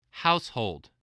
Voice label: "household", voice spelling

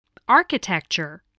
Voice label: "architecture", English

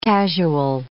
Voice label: "casual", English

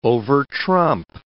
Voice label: "overtrump", English

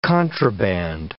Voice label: "contraband", English

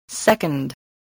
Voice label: "second", english spelling

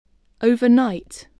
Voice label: "overnight", English voice

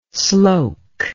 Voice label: "sloke", words

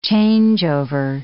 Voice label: "changeover", English

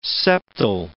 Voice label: "septal", English

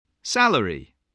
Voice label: "salary", English spelling

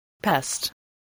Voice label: "pest", voice, English

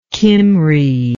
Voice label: "cymry", english

